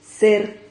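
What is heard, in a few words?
"cer", Spanish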